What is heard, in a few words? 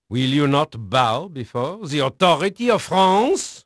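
French male, voice; Pirates Of The Caribbean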